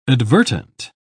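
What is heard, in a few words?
"advertent", British English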